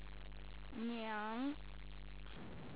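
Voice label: "niang2", Chinese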